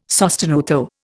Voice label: "sostenuto", Indian